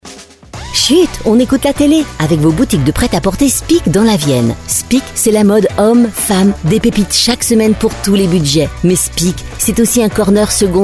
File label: Sponsor Promo; Chut on écoute la télé avec vos boutiques speak en Nouvelle Aquitaine et Centre; vienne